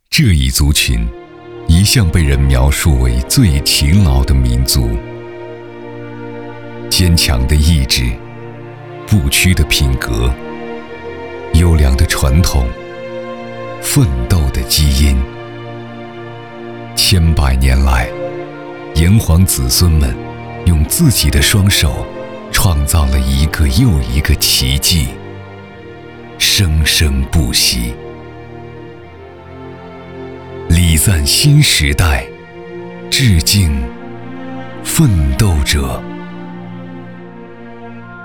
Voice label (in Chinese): 男 温暖专题